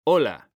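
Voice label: "hola", Spanish, male; mekanta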